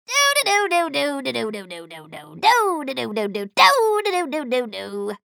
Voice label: chatter neutral; slash